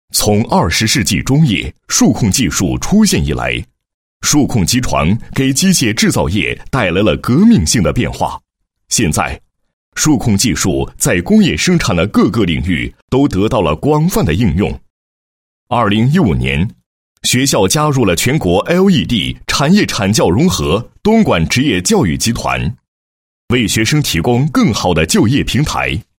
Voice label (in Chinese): 男 学校, 学校数控技术应用专业宣传介绍